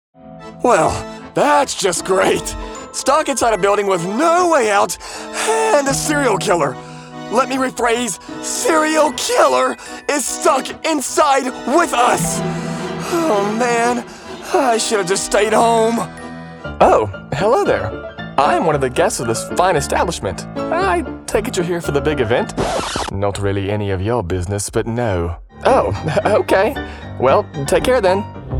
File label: Animation Demo